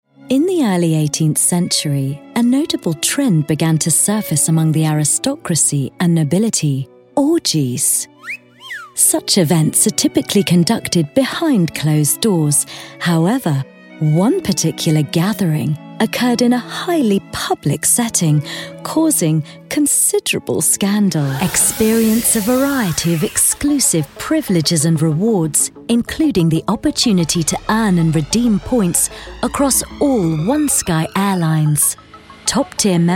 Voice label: corporate, Clear Bright British Voiceover

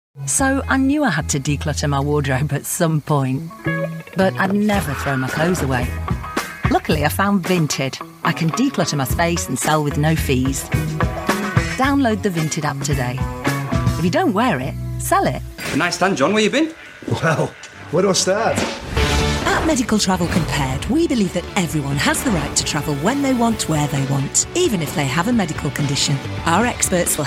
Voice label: commercial

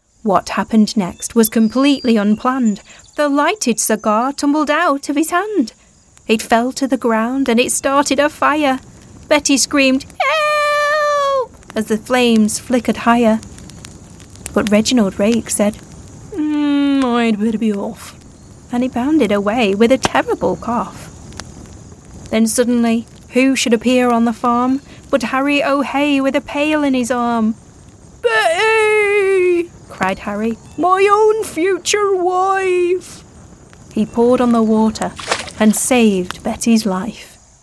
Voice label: manchester female audiobook

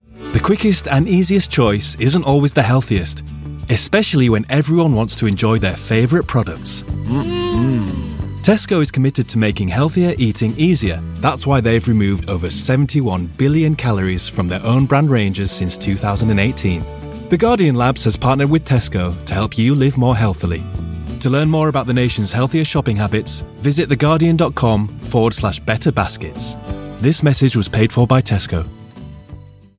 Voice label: commercial; guardian x tesco

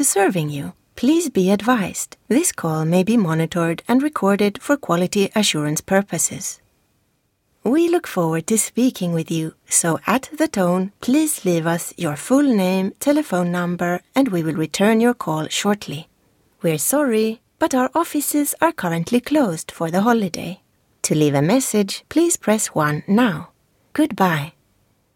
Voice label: swedish swenglish IVR

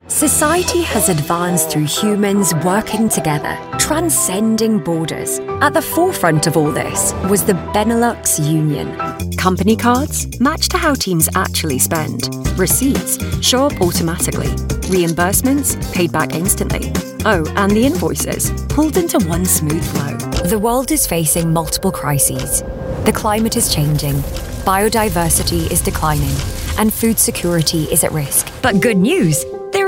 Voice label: english accent corporate

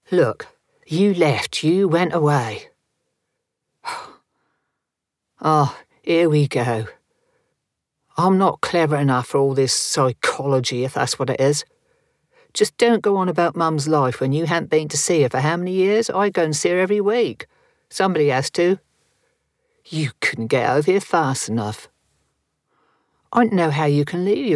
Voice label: character suffolk ac; joyce

